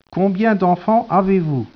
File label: speech, French